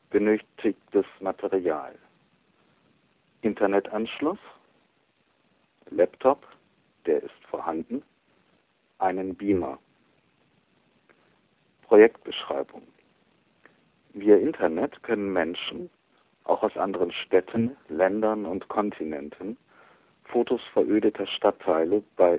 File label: Voicemail